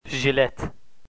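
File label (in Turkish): "jilet", Turkish, male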